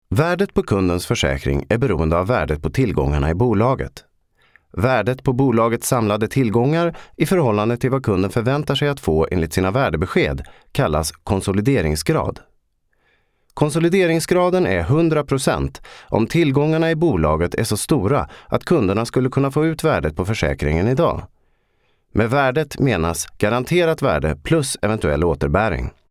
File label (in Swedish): svensk man